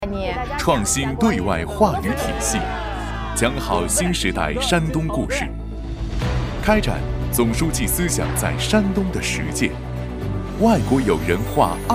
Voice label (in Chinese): 男,外宣片; 山东外宣片这里是山东